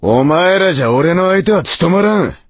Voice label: voice, dubbing